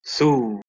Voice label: "thu", Proto Germanic